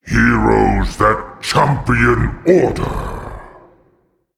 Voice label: Male Titan Trigger